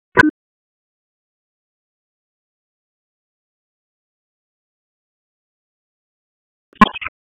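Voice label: Raleigh Police North District Tactical; wake; p25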